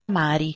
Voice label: "mari", Proto-Germanic